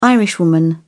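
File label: "irishwoman", British English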